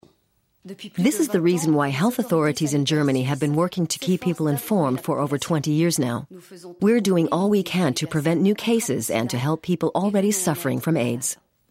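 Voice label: Voiceover documentary; Aids Docu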